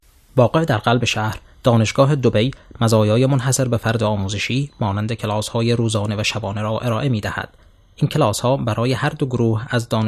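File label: Farsi male; Farsi, Middle Eastern, Male, 20s-30s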